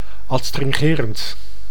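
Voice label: "adstringerend", Dutch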